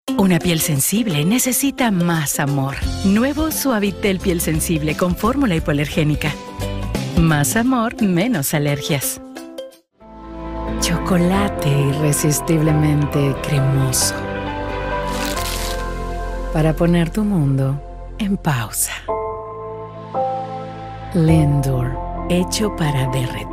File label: Commercials